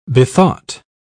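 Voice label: "bethought", British English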